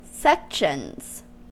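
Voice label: "sections", American English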